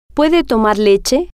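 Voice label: puede Tomar Leche, female, spanish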